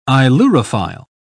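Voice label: "ailurophile", British English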